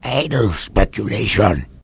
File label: answer, vort speech; hl2